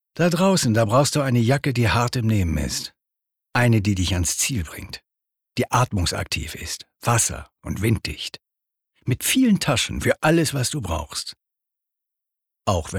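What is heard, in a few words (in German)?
Werbung JACKE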